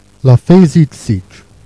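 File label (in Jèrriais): "la faîsie d'cidre", Jèrriais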